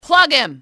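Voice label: plug him, english female; XBOX; enemy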